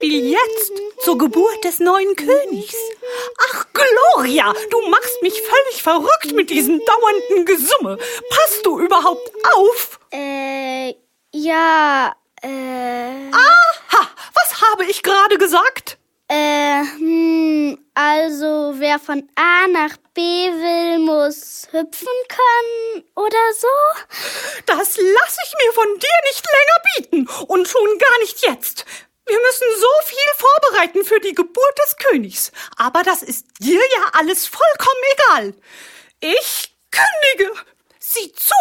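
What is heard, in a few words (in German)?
Hörspielszene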